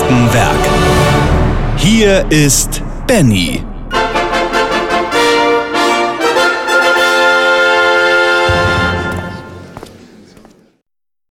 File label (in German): gedicht intro